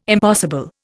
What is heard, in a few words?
"impossible", Indian